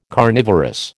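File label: "carnivorous", American English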